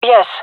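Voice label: affirmation, female; vo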